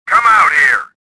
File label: suspicious; swamp